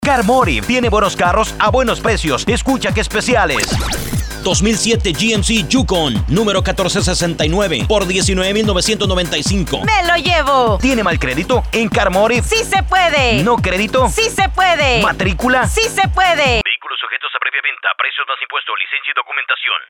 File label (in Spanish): Comercial